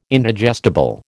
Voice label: "Indigestible", American

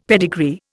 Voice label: "pedigree", Indian; Dictionary

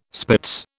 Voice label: "spitz", British

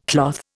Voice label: "cloth", Indian

